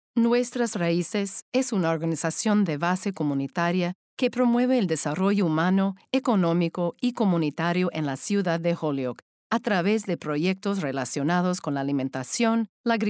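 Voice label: SPA LA Narr Non Profit, Spanish Latin American narration non profit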